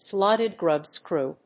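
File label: "slotted grub screw", English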